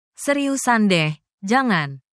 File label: indonesian phrases